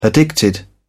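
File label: "addicted", English, British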